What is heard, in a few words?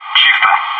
"clear", bot; maxpack